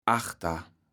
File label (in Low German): "achter", kaevels platt